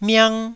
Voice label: "miang1", Taiwanese syllable